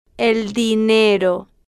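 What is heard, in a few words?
el dinero, Spanish, slow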